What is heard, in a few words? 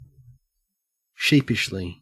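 "sheepishly", Australian English; pronunciations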